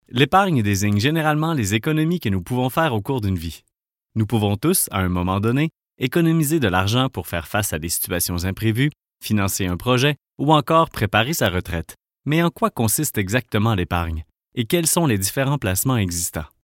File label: french canadian narration